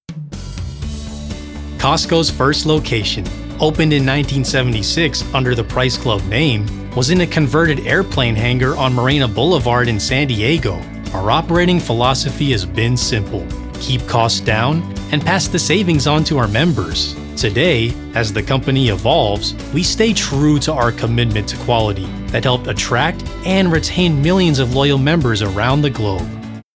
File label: Corporate; COSTCO